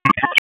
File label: Police Southeast District Tac; Raleigh; Tac 1; wake; p25